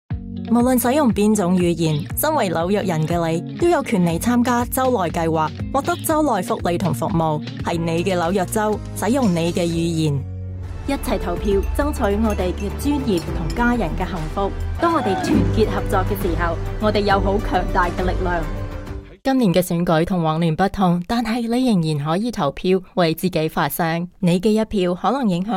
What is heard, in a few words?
Cantonese